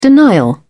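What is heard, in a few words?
"denial", British English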